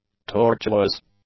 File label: "tortuous", English; adjectives